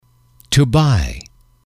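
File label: "to buy", English, male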